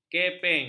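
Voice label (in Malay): "kepeng", Malay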